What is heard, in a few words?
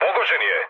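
Serbian aircraft destroyed mood low, speech; War Thunder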